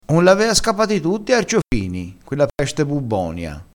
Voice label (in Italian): "scapato", crespinese